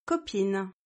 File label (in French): "copine", French